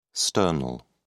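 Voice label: "sternal", British English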